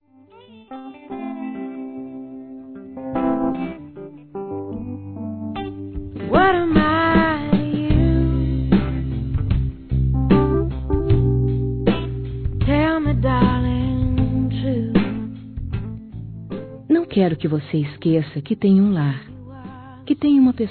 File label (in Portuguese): Feminino; Volta para Casa; Telemensagens 2017; Românticas